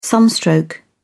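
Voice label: "sunstroke", English, uk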